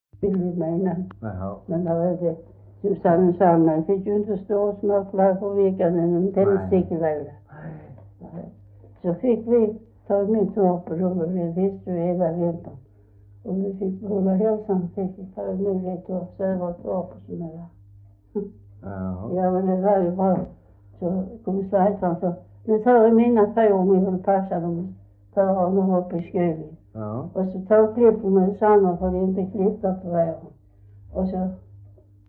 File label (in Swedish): S Svedala, dialekter; Folkminnen